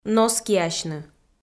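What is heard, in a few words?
"носкиасьны", Komi-Zyrian, female; audio dict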